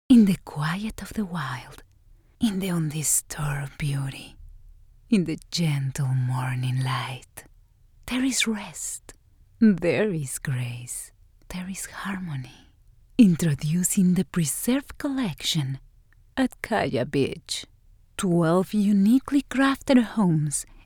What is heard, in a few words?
english real estate